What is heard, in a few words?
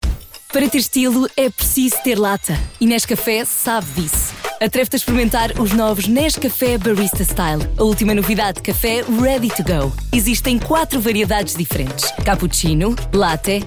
Spot